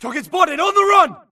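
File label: grunt target Player Moving Mid; imc; Titanfall 2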